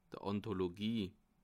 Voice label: "anthologie", alsace

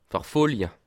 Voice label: "verfolje", alsace

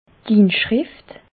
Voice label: "inschrift", alsace

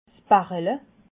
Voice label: "bachele", alsace

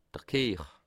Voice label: "kehr", alsace

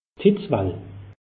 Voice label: "hitzwalle", alsace; meteo

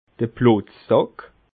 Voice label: "plotzsack", alsace

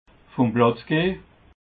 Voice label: "vum platz geh", alsace

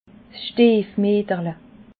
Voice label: "stiefmieterle", alsace

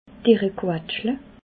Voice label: "deere quatschle", alsace

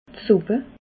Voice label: "suppe", alsace

